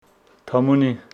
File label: Homshetsi plum tree